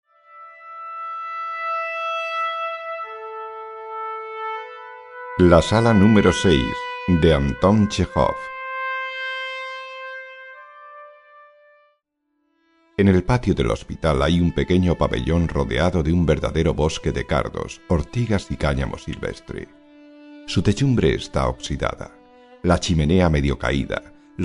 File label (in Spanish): Audiolibros